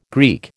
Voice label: "Greek", American